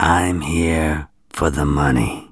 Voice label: Here for the Money, Male; gangsters